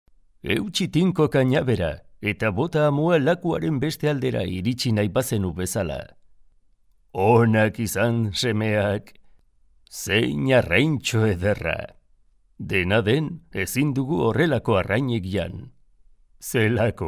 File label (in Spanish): locutor euskera mayor senior basque voiceover, Voz de mayor; locutor vasco; Escena Digital